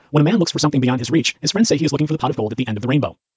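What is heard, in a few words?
male speech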